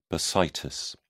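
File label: "bursitis", British English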